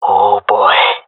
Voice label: scared, jungle male; vo; agents; Source Model Packs; Counter Strike Model Pack; CS2